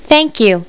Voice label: FEMALE; PEOPLE